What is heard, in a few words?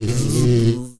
Zombie